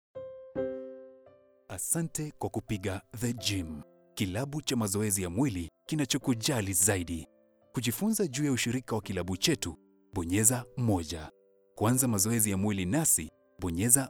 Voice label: swahili ivr

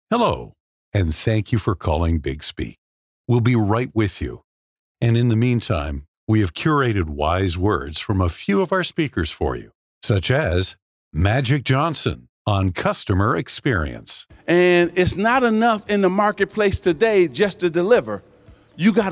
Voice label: english canadian ivr

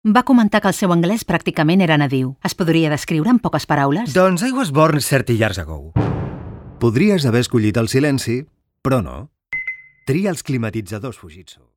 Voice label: spanish

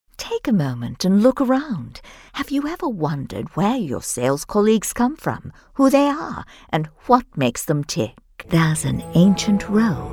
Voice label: english british corporate

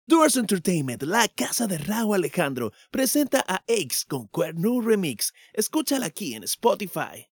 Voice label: spanish latin american promos

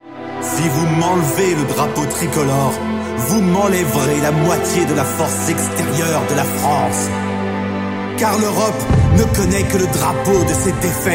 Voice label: french others

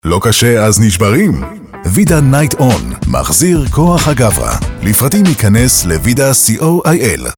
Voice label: hebrew commercial; Israel

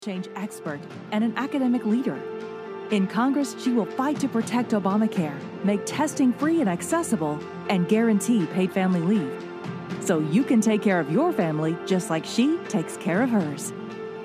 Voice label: english american political ads

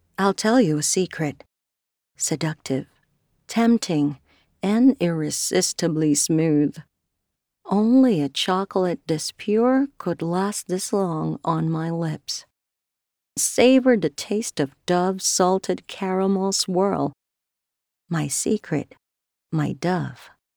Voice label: english promos

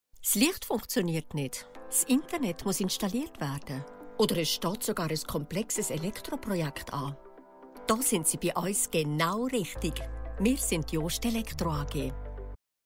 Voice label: german switzerland corporate videos; professional Swiss voice actor

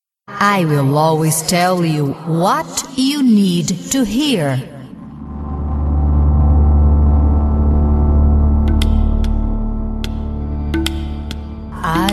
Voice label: english video games

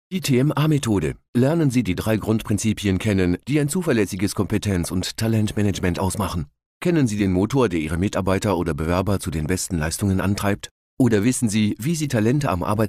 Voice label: german e-learning

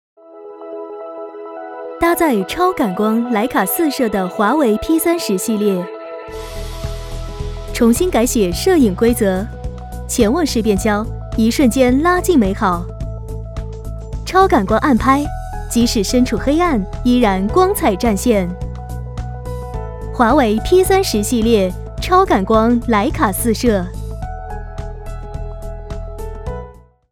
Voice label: chinese mandarin corporate videos

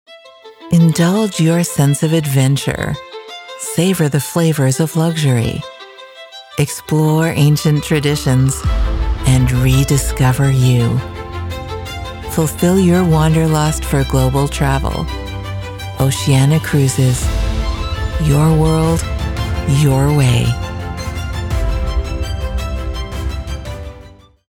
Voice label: english canadian

